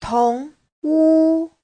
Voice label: "tong2wu1", Chinese

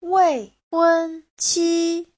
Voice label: "wei4hun1qi1", Chinese; Giáo trình Hán ngữ

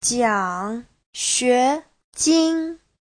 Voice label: "jiang3xue2jin1", chinese; 奖学金 : học bổng; Giáo trình Hán ngữ

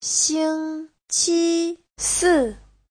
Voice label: "xing1 qi1 si4", Chinese

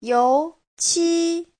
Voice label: "you2qi1", Chinese; 油漆 : sơn

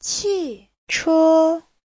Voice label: "qi4che1", chinese

